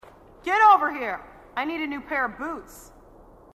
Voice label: marine female, sassy